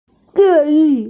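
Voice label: "zhi4yu4", Mandarin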